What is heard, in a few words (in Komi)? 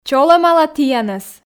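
"чолӧмала тіянӧс", Komi, female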